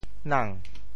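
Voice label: "nang2", Teochew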